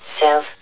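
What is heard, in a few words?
"self", male; hl1mp; XDM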